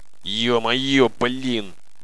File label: monologue; svencoop; paranoia; officer